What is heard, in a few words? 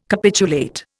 "capitulate", Indian